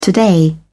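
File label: "today", British English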